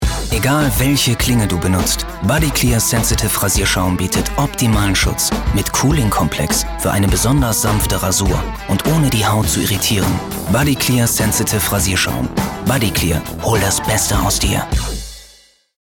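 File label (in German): Werbung Lebendig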